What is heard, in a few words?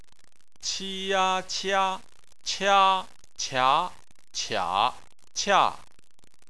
"qia", chinese